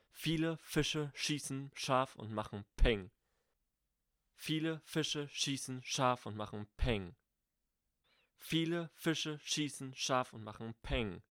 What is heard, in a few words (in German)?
shure sm58 sibilanten und plosive